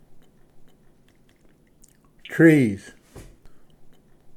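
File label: "trees", English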